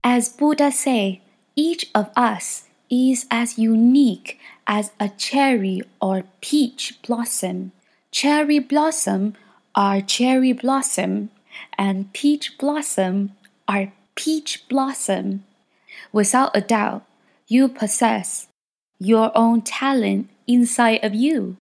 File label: Chinese Accent